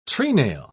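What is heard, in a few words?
"trenail", British English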